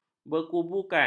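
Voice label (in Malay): "berkubukan", Malay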